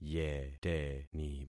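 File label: "yeteni", concatenated syllables; Affixing experiment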